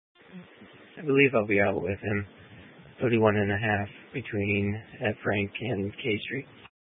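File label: Sheriff Dispatch, Scanner audio recording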